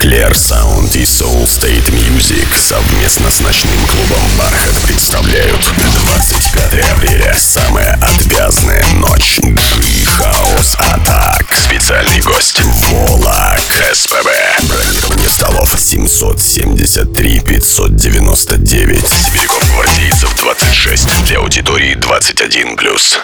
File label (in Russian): АУДИО АФИША; Другая Музыка